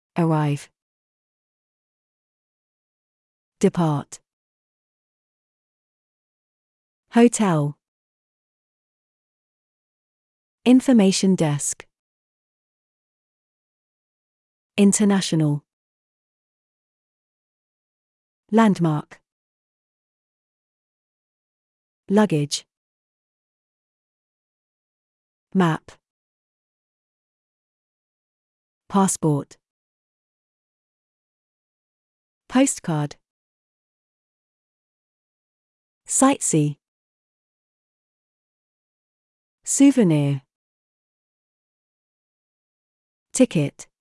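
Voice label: travel, British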